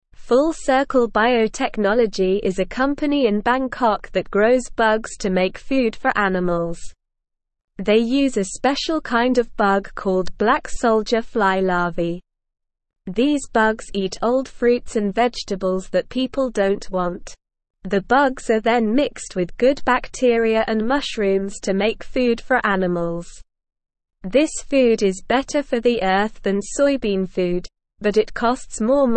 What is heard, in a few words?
English SLOW Reading; Bug Farming Growing Food for Animals with Bugs